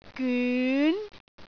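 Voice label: "gyun2", Cantonese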